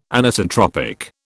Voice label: "Anisotropic", British

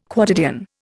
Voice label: "quotidian", Indian

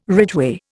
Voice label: "ridgeway", Indian

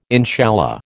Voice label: "inshallah", American

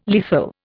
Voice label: "lethal", Indian

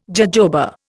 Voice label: "jojoba", Indian

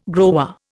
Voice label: "grower", Indian

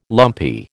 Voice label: "lumpy", American

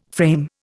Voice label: "frame", Indian

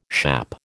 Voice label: "schappe", American

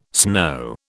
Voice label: "snow", British

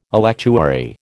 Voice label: "electuary", American

